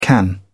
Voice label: "can", English